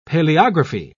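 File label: "paleography", British English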